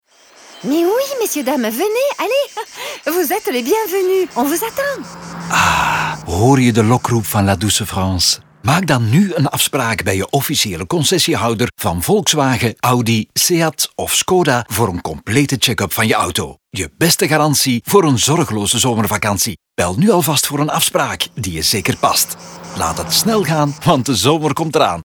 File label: Radio, France NL; DIeteren AFS